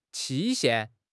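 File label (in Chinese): "期限", Chinese; HSK6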